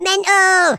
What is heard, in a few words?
"bummer", Madchester